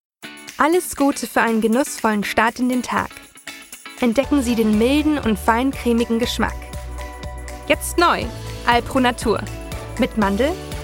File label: German